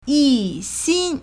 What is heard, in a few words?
"yi4 xin1", Chinese